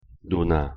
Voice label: "누나", Korean